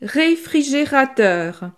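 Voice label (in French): "refrigerateur", French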